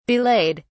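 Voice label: "belayed", British English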